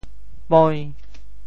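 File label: "poi~5", Teochew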